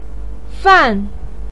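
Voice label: "fan", Mandarin pinyin, tone 4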